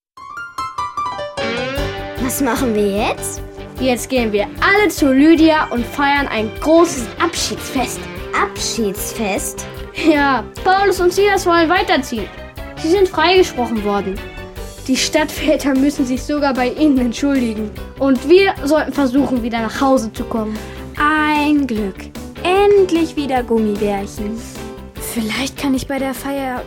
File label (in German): Hoerszene; Die Gute Nachricht